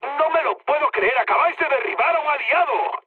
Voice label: player killed ally, mood med, speech; War Thunder

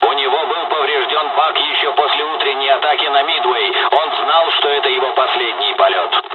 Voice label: dialog, dialogs russian; War Thunder

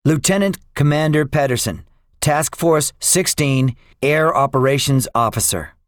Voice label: debriefing, english; guadalcanal; War Thunder

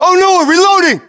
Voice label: US inform reloading, battlechatter, english

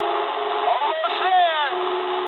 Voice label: eng near waypoint mood low, speech eng; War Thunder

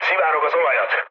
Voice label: damaged oil, speech hun; War Thunder

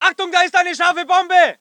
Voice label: voice ger infantry

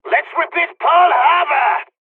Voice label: dialog, hungarian; War Thunder

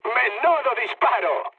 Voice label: player killed enemy, mood med, speech; War Thunder

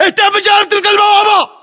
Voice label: order cover gate, battle chatter, english

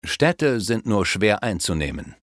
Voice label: Attack City, Speech German Advisors; Civ5

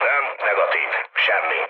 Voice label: hun chat, speech hun; War Thunder

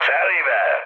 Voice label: bomb success, mood med, speech hun; War Thunder

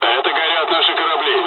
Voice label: dialogs russian; War Thunder

